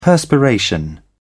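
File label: "perspiration", British English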